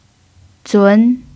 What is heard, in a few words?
"zun2", Chinese pinyin